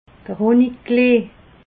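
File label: "hunniklee", Alsatian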